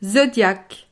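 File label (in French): "zodiac", French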